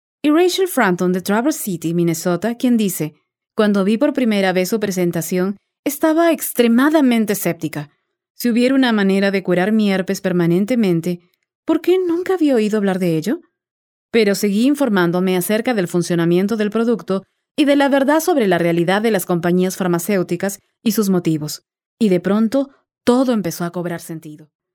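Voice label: Spanish, female, narration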